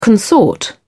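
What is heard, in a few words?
"consort", English, British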